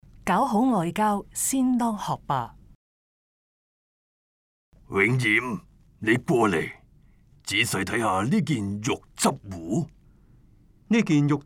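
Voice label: Cantonese, audio guide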